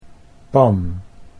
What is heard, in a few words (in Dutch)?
"pan", Dutch